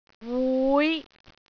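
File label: "wui5", Cantonese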